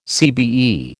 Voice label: "CBE", American